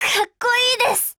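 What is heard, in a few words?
Japanese; T5000; APPRECIATE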